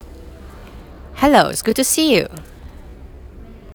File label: "Hello", woman